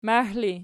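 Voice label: "maih" ergative, Archi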